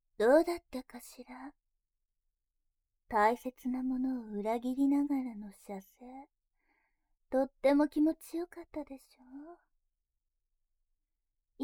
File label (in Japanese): ピロートーク, ASMR